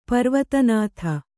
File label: "ಪರ್ವತನಾಥ", Kannada